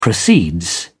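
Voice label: "proceeds", English, British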